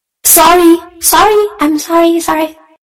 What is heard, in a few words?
sorry loud; mei